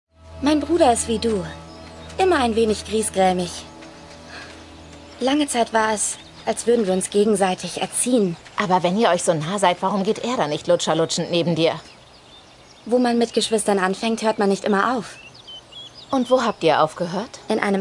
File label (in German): Synchron; Once upon a time